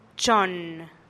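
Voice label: "chonn", Teotitlán del Valle Zapotec; tres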